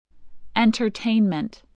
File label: "entertainment", voice, english